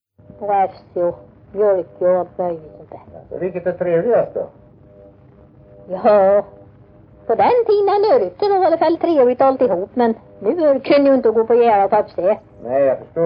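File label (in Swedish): Östra Ryd Östergötland, Svenska sagor dialekter; Folkminnen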